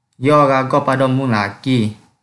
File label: Kelantan-Pattani Malay